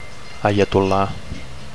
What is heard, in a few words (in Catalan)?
"aiatolla", Catalan; ayatollah